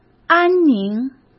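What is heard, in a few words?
"安宁", Mandarin Chinese; hsk; chinese-flashcards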